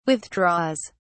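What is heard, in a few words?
"withdraws", English, British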